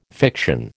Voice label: "fiction", American

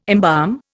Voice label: "embalm", Indian

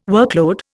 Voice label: "workload", Indian

